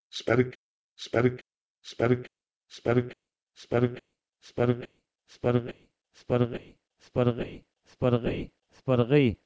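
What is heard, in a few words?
Proto-Indo-European "spergh" to Pashto "sparGay"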